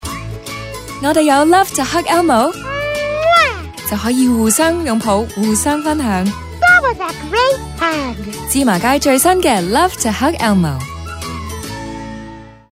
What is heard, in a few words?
Love To Hug, Cantonese